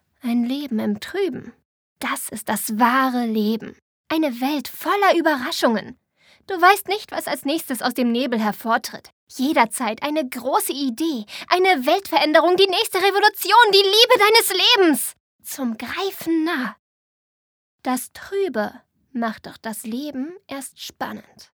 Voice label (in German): Klarheit Energisch